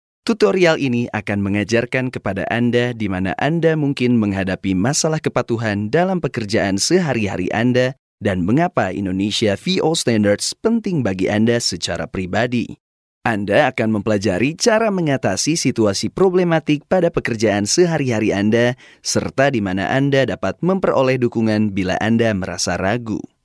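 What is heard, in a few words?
Indonesian, male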